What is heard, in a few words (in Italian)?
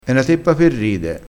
"per ride", crespinese